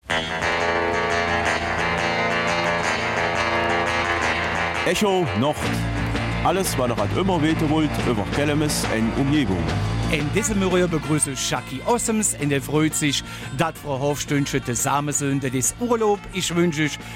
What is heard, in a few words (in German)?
Kelmiser Mundart; Der Verkehrsverein Lontzen